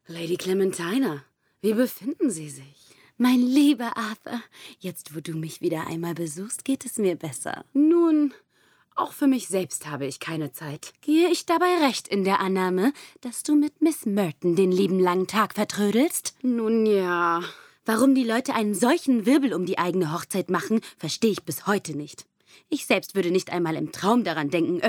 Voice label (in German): dialog